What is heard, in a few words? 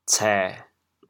"cê1", chaoyang